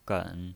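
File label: "gen", Mandarin Chinese, third tone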